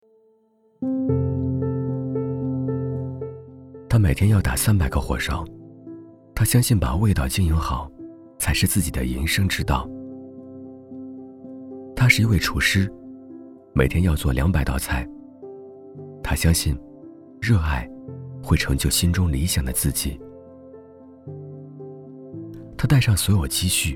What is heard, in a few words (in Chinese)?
旁白自然走心正能量; 普通人物